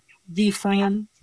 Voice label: Voice Acting, es, F0